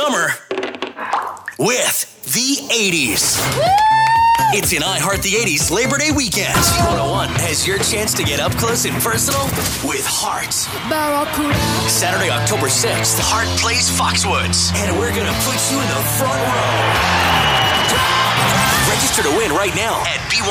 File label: radio classic hits; radio imaging